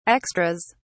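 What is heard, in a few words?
"extras", British English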